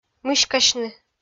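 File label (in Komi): "мыськасьны", Komi, female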